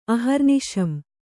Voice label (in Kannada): "ಅಹರ್ನಿಶಂ", Kannada